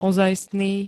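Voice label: "ozajstný", Slovak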